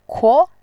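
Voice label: "kuo2", Mandarin Chinese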